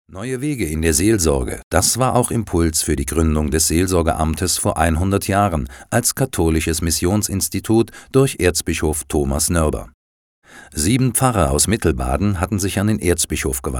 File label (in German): Dokumentation DE